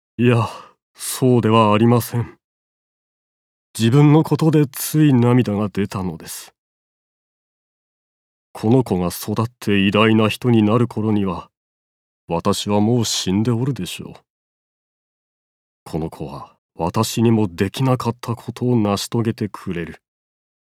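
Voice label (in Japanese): 独白40代, 盛岡弁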